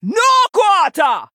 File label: Female Troll Hero Power